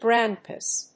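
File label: "grandpas", English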